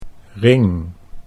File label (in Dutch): "ring", Dutch; sieraden